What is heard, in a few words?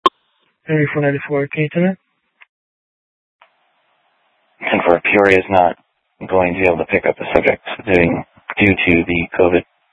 Sheriff, scanner; Maricopa County (P25) - Thompson Peak; Shrf Mnt (Parks)